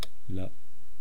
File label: "la", East Cree syllabics